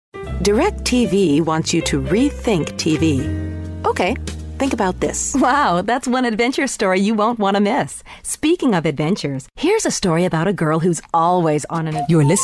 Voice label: ENGLISH